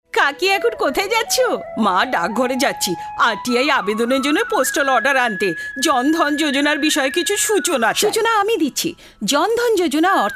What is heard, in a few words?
Bangla